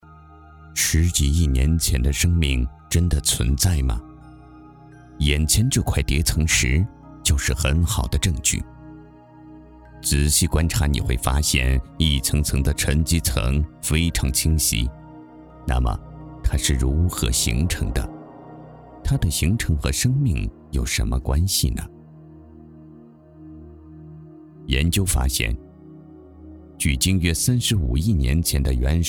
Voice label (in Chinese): 博物馆解说词; 【记录】2015年博物馆解说词